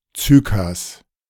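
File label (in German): "Zykas", German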